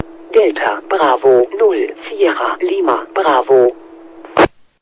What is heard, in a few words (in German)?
Sprachausgabe; DB0SLB; Relaisfunkstellenverbund DB0SLB